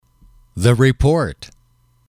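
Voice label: "the report", English, male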